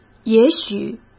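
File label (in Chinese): "也许", Mandarin Chinese; hsk; chinese-flashcards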